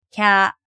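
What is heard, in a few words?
"kya", Japanese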